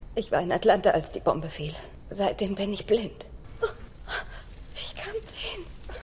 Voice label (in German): Entstellte Frau; Revolution